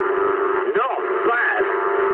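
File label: English trick mood med; speech; War Thunder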